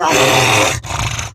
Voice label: Attack Grineer Wolf, en; Battle Barks

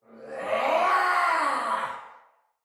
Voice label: Battle Cry Soldier Male

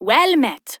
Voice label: Ost Fem en; Cetus

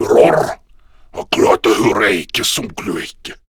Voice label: Patrol, Male; Warframe; Grineer Male B; Battle Barks

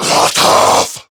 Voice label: Attack, Male

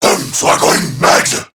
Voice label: Reload, Male

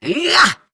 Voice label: Attack Effort, Battle; Warframe; Grineer Catbrow Handler; Battle Barks

